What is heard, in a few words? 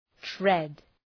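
"tread", English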